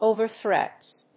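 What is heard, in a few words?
"over thrust", English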